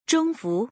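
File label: "zhong fu", Mandarin Chinese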